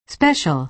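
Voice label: "special", American English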